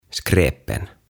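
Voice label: "Skräpen", Swedish; Roskat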